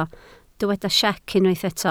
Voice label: "siec", Welsh